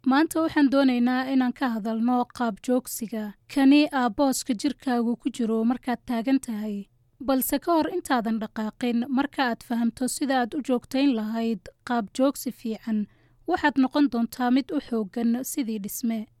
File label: Somali F; Studio44